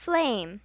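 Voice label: "flame", English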